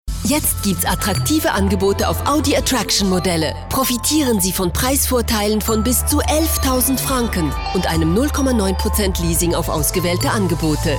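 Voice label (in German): Werbung, German, Swiss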